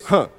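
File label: "huh", vocal sample; Voice; ooh ahh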